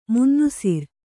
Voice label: "ಮುನ್ನುಸಿರ್", Kannada

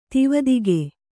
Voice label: "ತಿವದಿಗೆ", Kannada

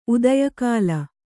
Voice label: "ಉದಯಕಾಲ", Kannada

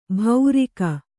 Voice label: "ಭೌರಿಕ", Kannada

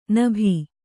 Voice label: "ನಭಿ", Kannada

